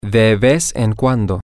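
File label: once in a while, Spanish, male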